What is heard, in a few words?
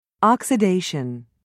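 "oxidation", British English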